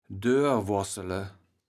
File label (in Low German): "doerwoarssele", kaevels platt